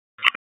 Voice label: Police Northwest District Tac 1; Raleigh; wake

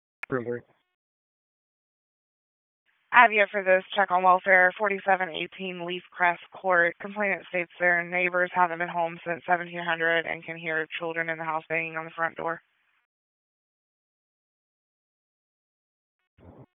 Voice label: Police Northeast District Disp, p25; Raleigh

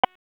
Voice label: FIRE OPS, p25

